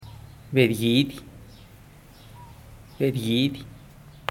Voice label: bed gidi gallina, zapoteco teotitlan